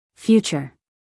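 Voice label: "future", American English, female; 1000 hours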